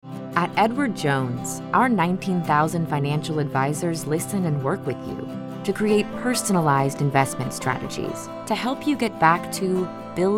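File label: voice over; demo reel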